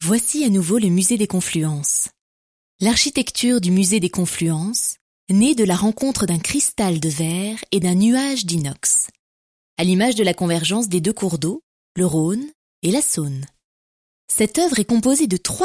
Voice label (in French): audioguide, voix off; Voici un extrait d’une voix off réalisée pour un spectacle enfant.